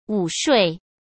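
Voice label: "午睡", Mandarin Chinese; hsk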